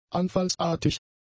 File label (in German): "anfallsartig", German; adjectives